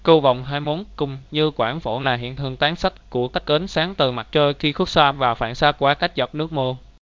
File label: speaker, vi VN; piper voices; vivos